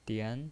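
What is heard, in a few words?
"dian" Mandarin Chinese, second tone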